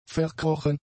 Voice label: "verkrochen", German; adjectives

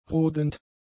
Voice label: "rodend", German; adjectives

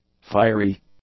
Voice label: "fiery", english; adjectives

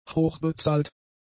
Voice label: "hochbezahlt", German; adjectives

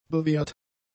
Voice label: "bewehrt", German; adjectives

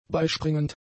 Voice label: "beispringend", German; adjectives

